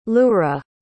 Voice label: "lura", pronounce

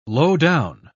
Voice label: "lowdown", pronounce

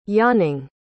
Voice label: "yawning", pronounce

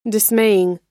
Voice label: "dismaying", pronounce

